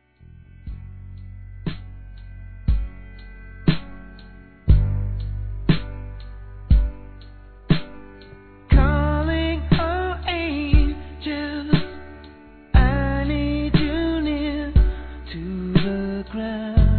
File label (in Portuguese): Aniversário Casamento Feminino; Telemensagens; Românticas